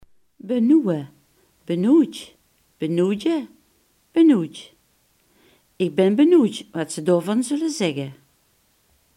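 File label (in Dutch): "benieuwen" Limburgish dialect word; benieuwen, benoewe (benoewtj, benoewdje, benoewdj)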